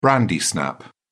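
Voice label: "brandy snap", British English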